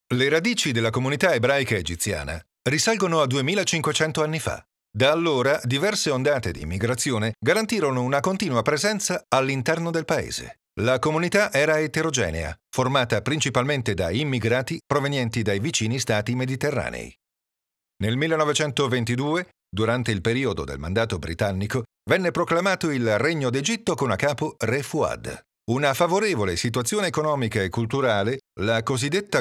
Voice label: VOICEOVER